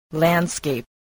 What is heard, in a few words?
"landscape", English